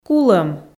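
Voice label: "кулӧм", Komi, female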